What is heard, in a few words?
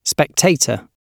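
"spectator" British English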